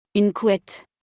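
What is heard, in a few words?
"Une couette", French; vocabulaire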